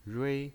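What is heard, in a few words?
"rui", Mandarin Chinese pinyin voice, first tone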